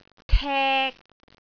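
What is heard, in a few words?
"tek3", Cantonese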